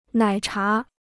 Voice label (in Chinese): "奶茶", Chinese